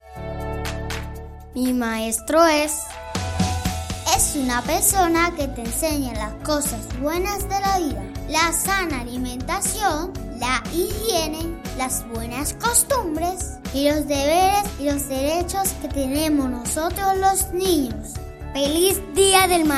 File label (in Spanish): Spot Radio; Talento